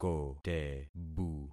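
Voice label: "kotebu", concatenated syllables; Affixing experiment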